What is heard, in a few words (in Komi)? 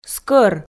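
"скӧр", Komi, female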